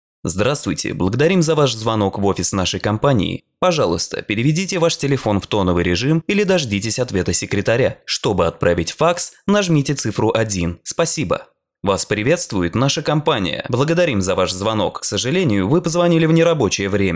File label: IVR